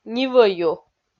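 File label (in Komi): "нивӧю" Komi, female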